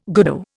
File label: "goodo", Indian